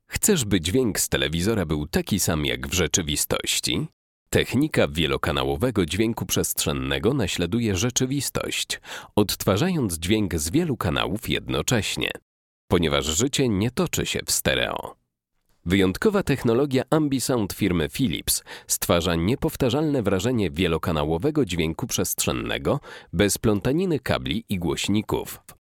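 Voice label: Polish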